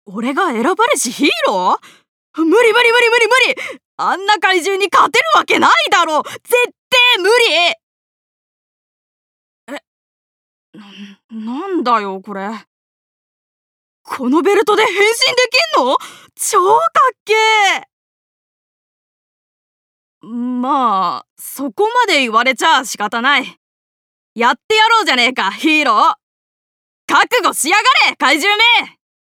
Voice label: ダンジョンでおだてられ先頭に立つ少年; 乗せられやすい少年

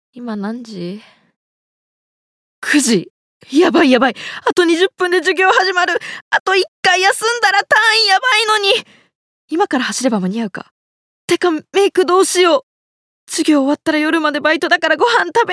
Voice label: ナチュラル

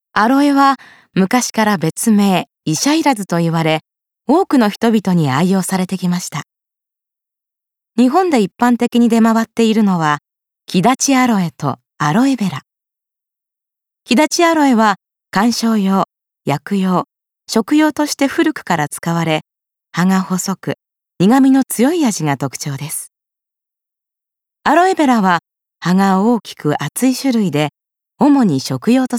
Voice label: 解説ナレ

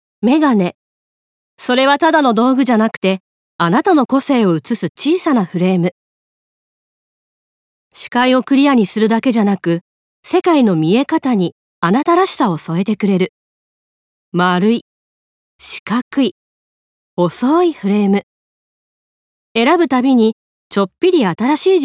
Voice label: メガネCM

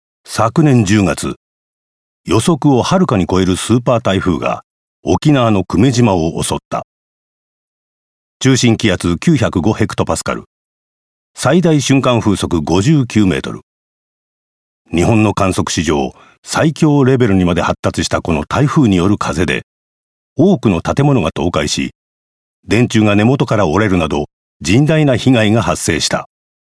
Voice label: ドキュメンタリー

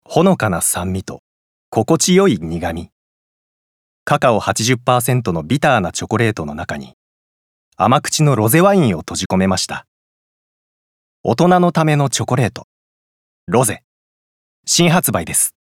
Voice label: お菓子CM

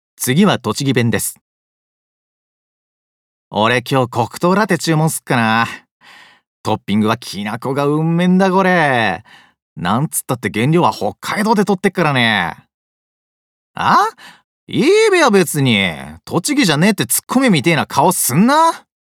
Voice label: 栃木弁

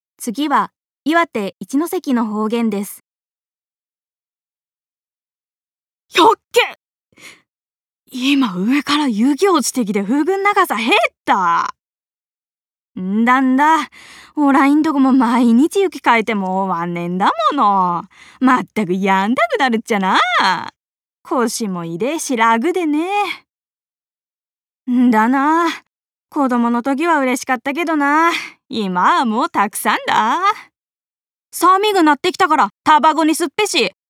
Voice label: 方言

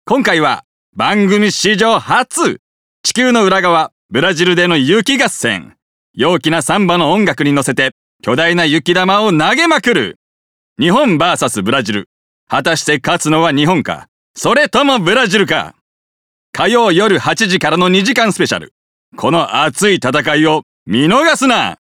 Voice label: 番宣ナレーションアップテンポ

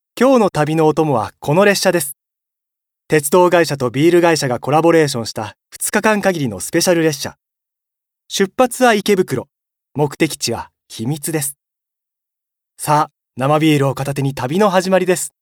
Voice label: 紀行ナレ

